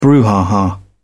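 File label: "brouhaha", British English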